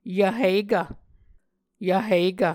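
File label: "yvhikv", Muscogee word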